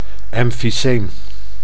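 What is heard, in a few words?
"emfyseem", Dutch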